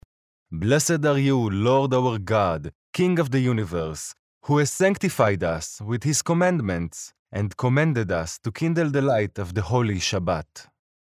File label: male hebrew voice over talent english